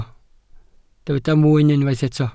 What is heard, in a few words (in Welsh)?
"mwyn", Welsh